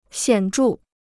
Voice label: "显著", Chinese

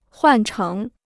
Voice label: "换成", Chinese

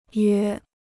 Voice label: "约", Chinese

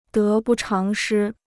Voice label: "得不偿失", Chinese

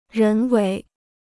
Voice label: "人为", Chinese

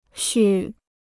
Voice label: "续", Chinese

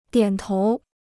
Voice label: "点头", Chinese

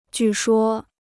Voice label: "据说", Chinese

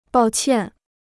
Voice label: "抱歉", Chinese

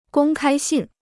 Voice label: "公开信", Chinese

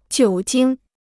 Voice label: "酒精", Chinese

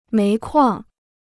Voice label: "煤矿", Chinese

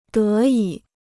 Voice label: "得以", Chinese

